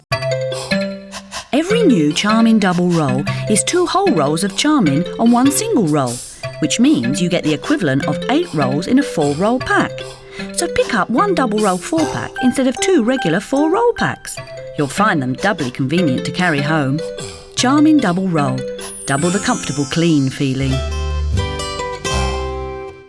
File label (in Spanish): anuncio divertido